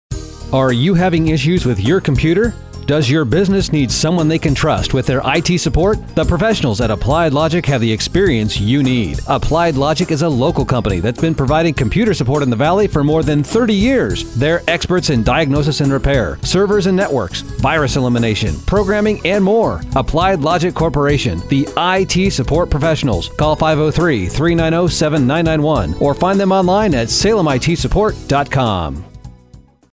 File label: radio Spot